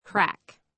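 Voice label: "craic", American English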